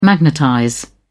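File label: "magnetize", British English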